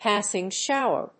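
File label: "passing shower", English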